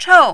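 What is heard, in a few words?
"chou", Mandarin, tone 4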